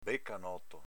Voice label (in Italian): "becanoto", dialetto veneto